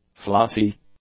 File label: "flossy", english; adjectives